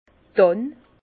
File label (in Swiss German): "dann", Bas Rhin; vin; sapin